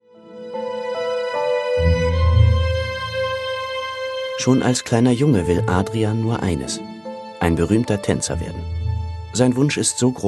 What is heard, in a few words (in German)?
Intro Kommentar; zdf; 37 grad